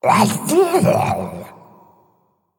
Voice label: Male Murloc ERROR PLAY

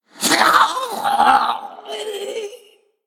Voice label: Male Undead Death

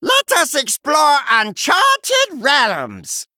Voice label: Male Murloc Picked

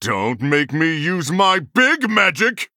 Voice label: Male Human Threaten

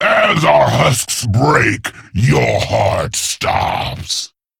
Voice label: Male Crypt Lord Play

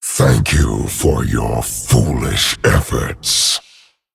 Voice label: Male Dragon Thanks

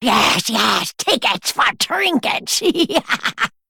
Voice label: Female Wyrmtongue Play

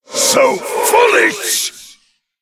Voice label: Male Attack; Reliquary Of Souls

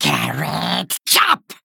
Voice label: Male Vermin Attack

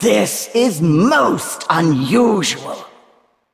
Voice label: Female Val'kyr Oops

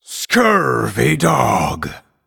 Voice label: Male Night Elf Wow

